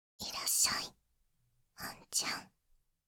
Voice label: いらっしゃい, システムボイス; アンちゃん; Windows等向けシステムボイス

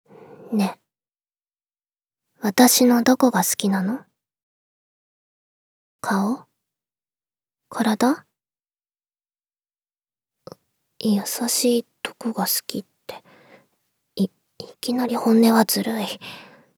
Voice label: ね？ 私のどこが好きなの？ 顔？ 身体？ 優しいとこが好きって…い、いきなり本音はずるい…, ASMR, アラーム音声

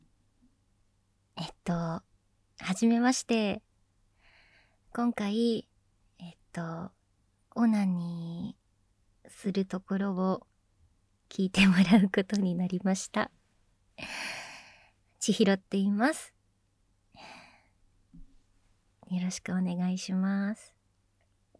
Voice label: 自己紹介インタビュー

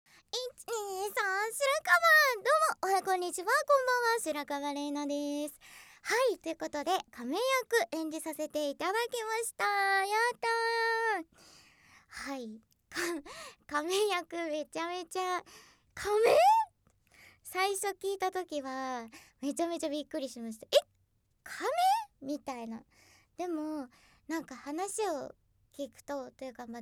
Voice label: フリートーク; SEなし

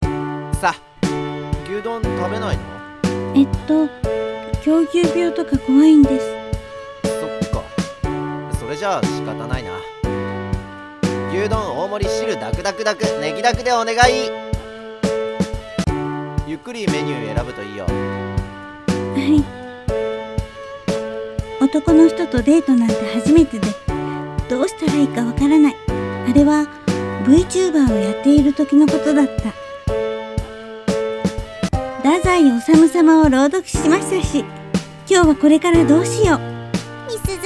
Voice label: ボイスドラマ; 女心を読まないある男の話

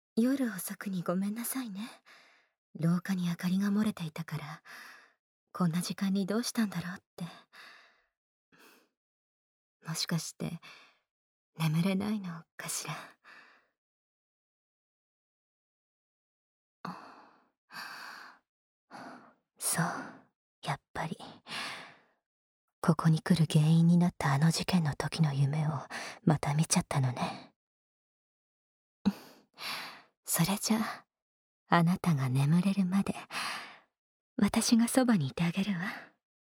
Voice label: 睡眠導入サポートボイス～耳かき、マッサージ、ストレッチ、抱きしめ添い寝、寝物語～, ASMR; 井河アサギ

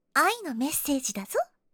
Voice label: 愛のメッセージだぞ, システムボイス; リクエストシステムボイス

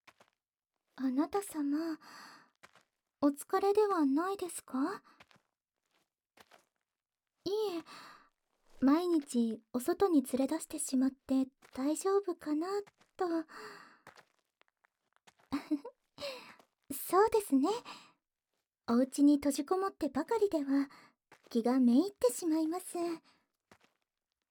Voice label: 『耳舐めして差し上げます♪』, ASMR, 環境音無し

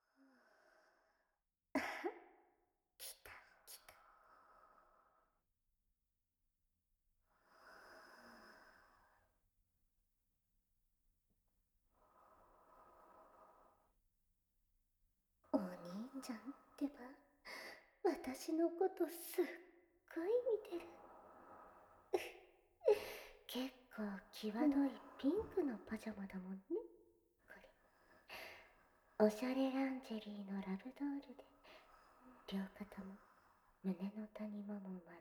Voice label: おにいちゃん、耳掃除してあげるね♡（SEなし 耳かきのみあり）

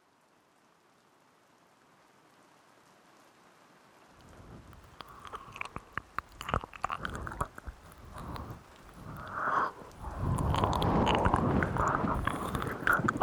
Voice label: 雨の音と、熱い吐息の中で, ASMR

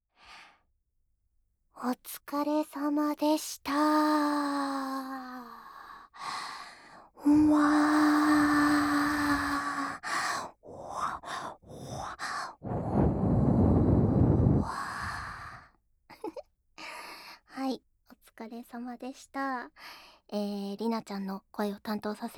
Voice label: フリートーク

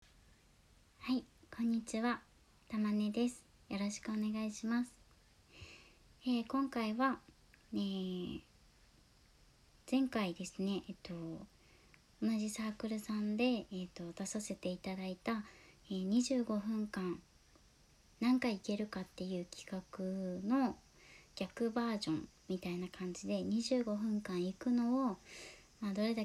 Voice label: 自己紹介, ASMR

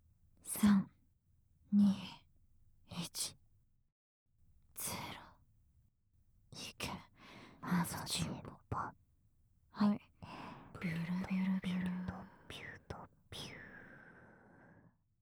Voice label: 【3人】カウントダウン, 淫語連呼・命令連呼バイノーラル

